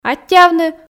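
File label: "аттьӧавны", Komi, female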